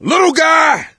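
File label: warn jockey, voice, male; Left 4 Dead 2; coach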